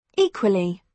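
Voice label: "equally", British English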